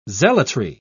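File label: "zealotry", British English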